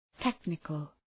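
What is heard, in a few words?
"technical", English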